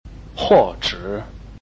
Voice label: "huo4 zhe3", Chinese